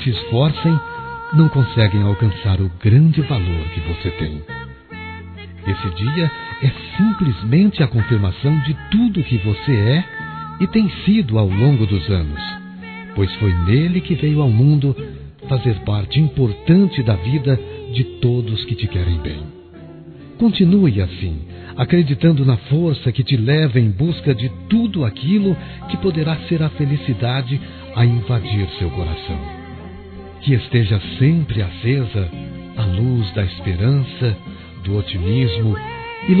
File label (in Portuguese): Aniversário Sobrinho Masculino